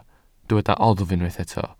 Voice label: "oddf" Welsh

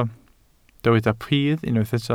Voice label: "pridd" Welsh

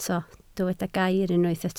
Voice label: "gair" Welsh

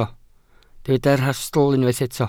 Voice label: "rhastl" Welsh

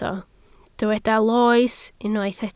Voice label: "loes", Welsh

